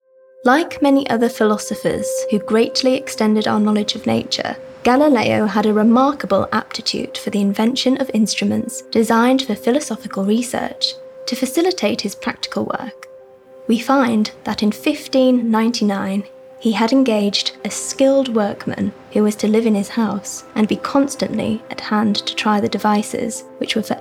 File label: Documentary English